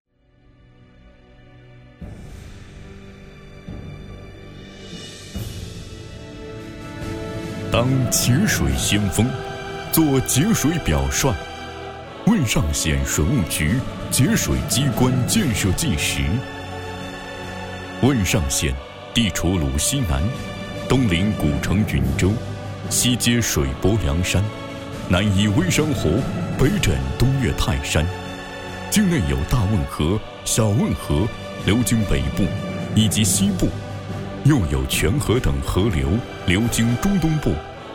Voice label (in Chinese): 男, 政府公益宣传; 节水先锋